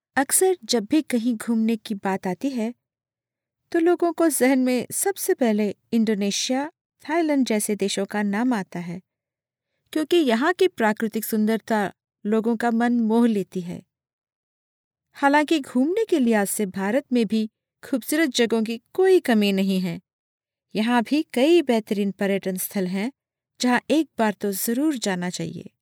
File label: female hindi voice over narrative